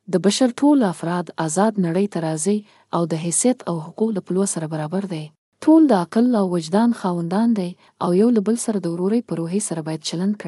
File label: udhr pashto